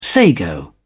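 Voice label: "sago", British English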